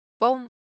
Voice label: Japanese, Ideo Phones

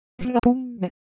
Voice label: Japanese, Ideo Phones; Shape

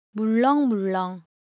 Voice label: Korean, Ideo Phones; Texture

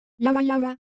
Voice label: Siwu Shape; ideophones

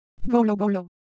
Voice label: Ewe, ideophones; Texture

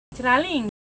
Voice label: Semai Texture

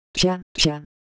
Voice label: Ewe Motion, ideophones

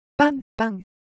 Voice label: Japanese, Ideo Phones; Shape

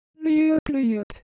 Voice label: Korean, Ideo Phones; Texture

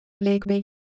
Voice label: Ewe, ideophones; Shape

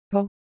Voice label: Ewe, ideophones

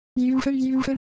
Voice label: Siwu Color Visual; ideophones

